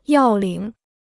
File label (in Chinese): "要领", Chinese